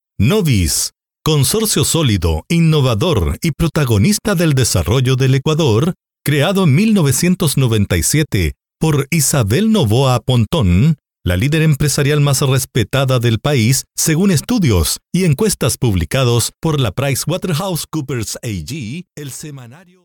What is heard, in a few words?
Spanish Latin